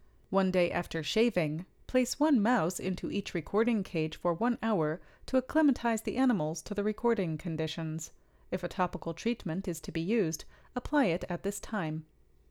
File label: Voiceover